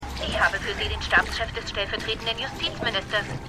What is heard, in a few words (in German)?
Telefonistin; Stimmproben; Dopesick